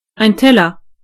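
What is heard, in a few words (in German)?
"plate", German; gcompris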